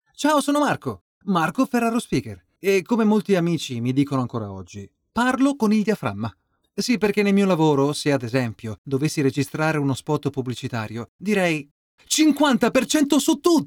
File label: italian others